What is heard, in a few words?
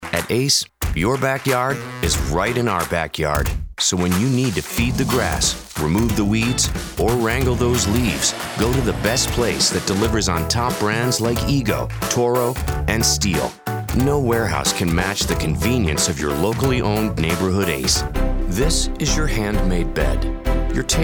Commercials